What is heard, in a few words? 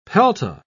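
"pelta", English, British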